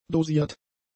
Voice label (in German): "dosiert", German; adjectives